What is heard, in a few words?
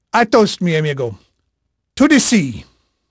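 Spanish male, voice; Pirates Of The Caribbean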